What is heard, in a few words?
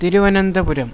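"Thiruvananthapuram", English; india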